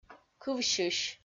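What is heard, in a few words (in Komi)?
"кывсьысь", Komi, female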